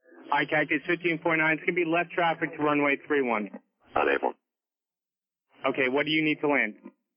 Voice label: chatter unable